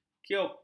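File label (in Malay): "kiub", Malay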